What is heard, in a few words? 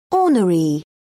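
"ornery", British English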